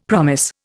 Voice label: "promise", Indian; Dictionary